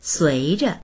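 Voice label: "sui2zhe", Mandarin Chinese; 随着; …にしたがって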